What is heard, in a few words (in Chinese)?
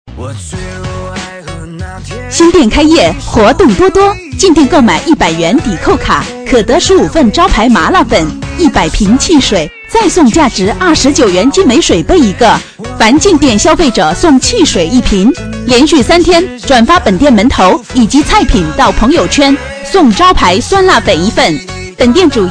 女 促销 麻辣粉开业